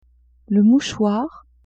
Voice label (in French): mouchoir, vocabulary; vetements